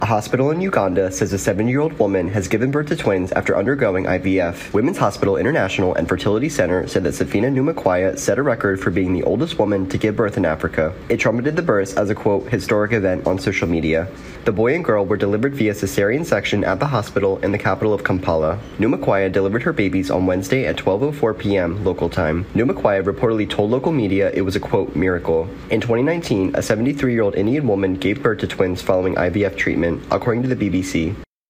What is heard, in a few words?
MONOTONE ROBOT REPORTER